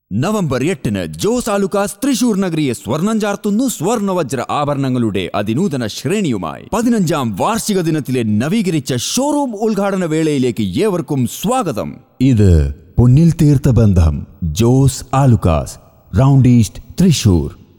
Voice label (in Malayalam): VO for Jewelry Commercial Malayalam; Jos Alukkas; Thrissur Showroom